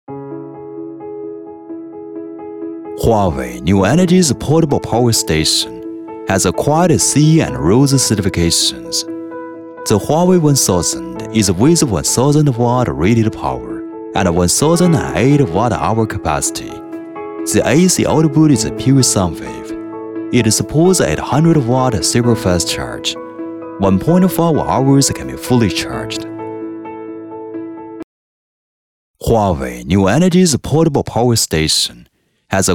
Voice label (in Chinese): 英文企业宣传片 大气浑厚; 华为